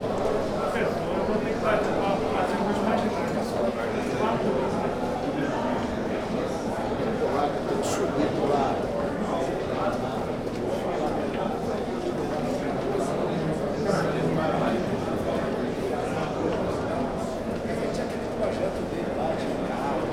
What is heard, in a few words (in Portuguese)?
Vozes masculinas no corredor; multidao